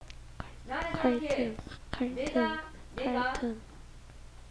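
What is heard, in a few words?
"cartoon", spoken word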